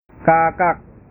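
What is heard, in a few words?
"kakak", Indonesian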